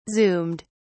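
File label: "zoomed", British English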